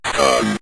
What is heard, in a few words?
"stun", synth voice; Kenney's Sound Pack